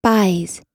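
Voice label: "buys", US English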